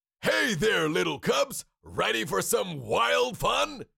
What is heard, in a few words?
Cartoon lion voice; ready for some wild fun